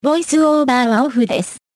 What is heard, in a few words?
Voice Over Off, ja